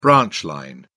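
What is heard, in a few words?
"branch line", British English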